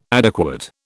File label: "adequate", British